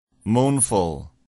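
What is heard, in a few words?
"moanful", English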